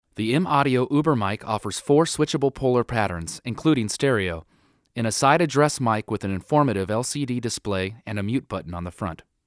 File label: Voc cardioid; usb mic